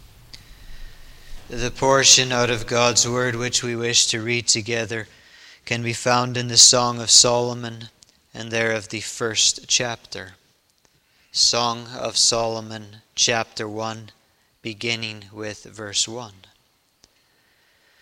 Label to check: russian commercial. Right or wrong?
Wrong